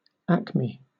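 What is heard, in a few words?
"acme", British English; pronunciation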